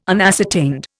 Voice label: "unascertained", Indian